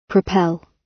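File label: "propel", British; Space, the planets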